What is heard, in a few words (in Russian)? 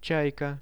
"chaika", Russian; чайка; birds; RUSSIAN VISUAL DICTIONARY